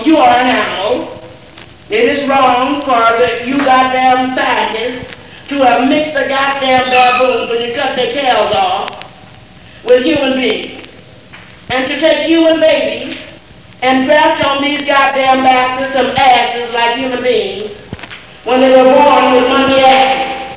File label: narrative